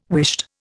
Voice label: "whisht", Indian